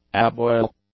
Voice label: "aboil", english; adjectives